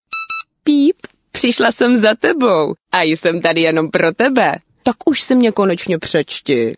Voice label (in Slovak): sms hlásky cz